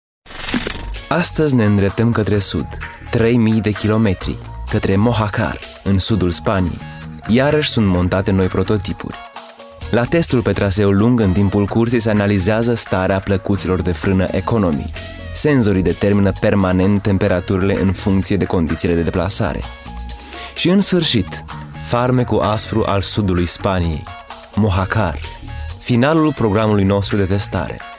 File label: moderation, rumaenisch